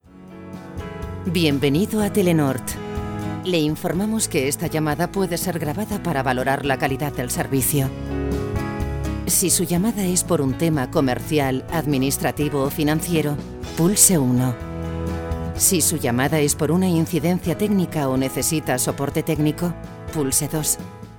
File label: Spanish Spain